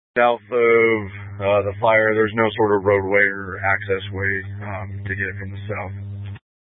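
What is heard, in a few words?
Incident Tactical 1, Scanner audio recording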